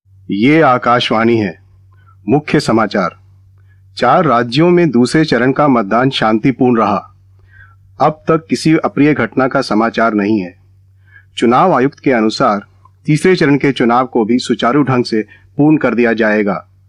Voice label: RADIO NEWS HINDI